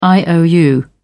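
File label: "iou", English, British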